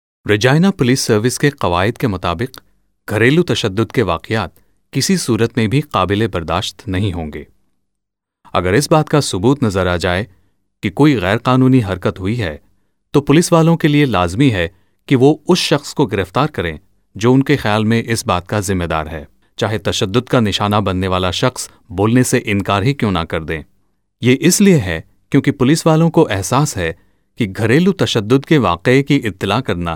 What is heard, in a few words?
Urdu Male